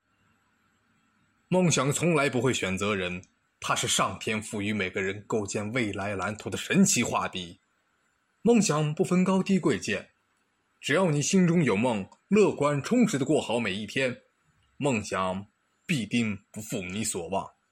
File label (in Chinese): 雄浑大气励志